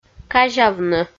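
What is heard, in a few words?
"казявны", Komi, female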